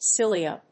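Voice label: "Silea", English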